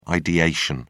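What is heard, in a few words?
"ideation", British English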